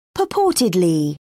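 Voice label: "purportedly", British English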